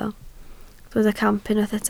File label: "camp", Welsh